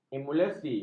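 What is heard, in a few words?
"emulsi", Malay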